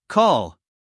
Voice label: "call", us male

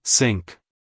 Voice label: "sink", US, male; 1000 hours

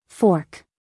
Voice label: "fork", us female

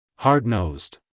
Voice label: "hard nosed", US, male

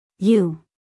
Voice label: "you", US, female; 1000 hours

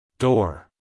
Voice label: "door", US, male; 1000 hours

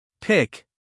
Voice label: "pick", US male

